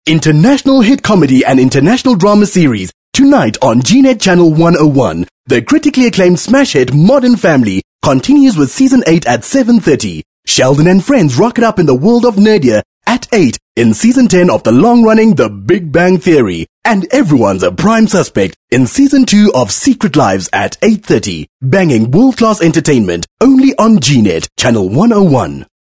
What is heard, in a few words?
English Skill Promo